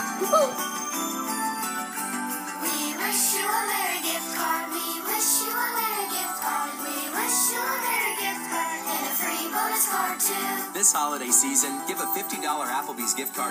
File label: Commercial; Applebees